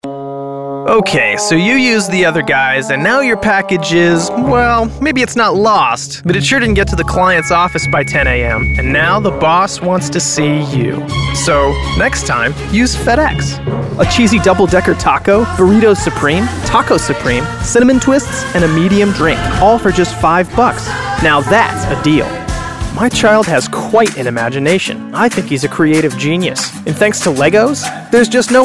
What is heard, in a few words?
FIRESTONE COMMERCIAL